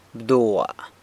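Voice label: "bdua", banana, San Lucas Quiaviní Zapotec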